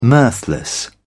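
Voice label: "mirthless", British English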